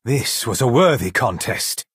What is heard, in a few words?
Altmer male good game